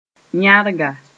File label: "yãrga", Mooré